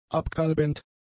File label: "abkalbend", German; adjectives